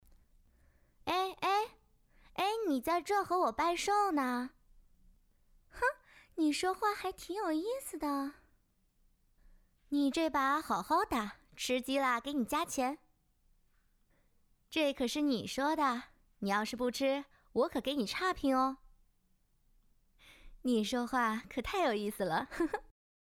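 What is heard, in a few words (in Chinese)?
游戏视频角色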